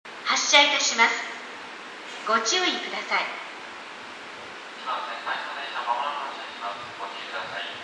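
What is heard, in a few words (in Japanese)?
駅放送, 旧放送 1番線 （回送） 発車; 八千代緑が丘駅への回送電車です。午前中の回送は殆どが1番線で扱います。; toyo-katsutadai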